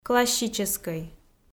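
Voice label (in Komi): "классическӧй", Komi, female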